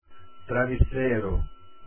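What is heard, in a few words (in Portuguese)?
"travesseiro" Portuguese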